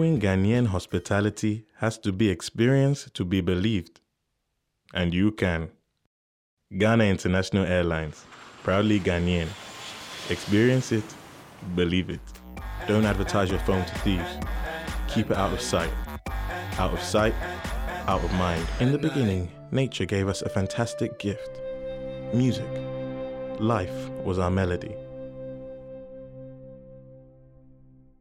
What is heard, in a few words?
COMMERCIAL